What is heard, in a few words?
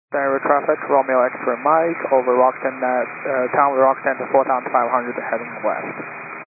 122.925 MHz; scanner radio